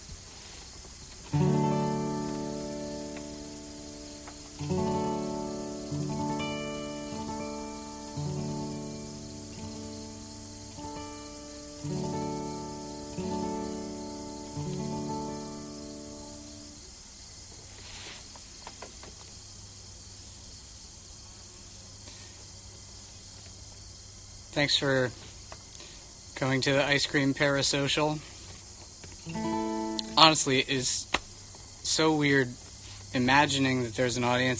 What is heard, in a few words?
Banter